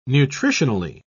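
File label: "nutritionally", English, British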